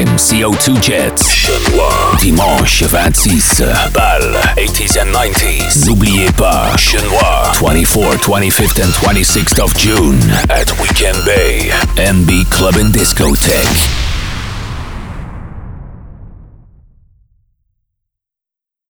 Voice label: party commercial

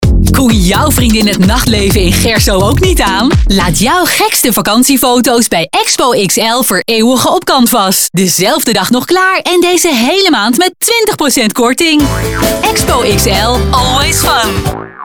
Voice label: radiospot nl commercial; expo xl cherso

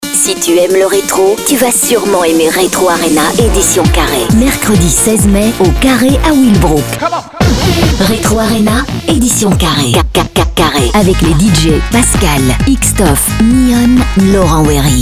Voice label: party commercial fr; retro arena carre

